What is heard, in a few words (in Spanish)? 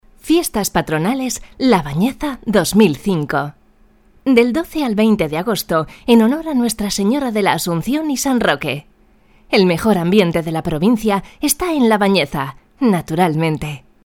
locutora; locutores